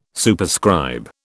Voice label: "Superscribe", British